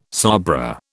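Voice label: "sabra", British English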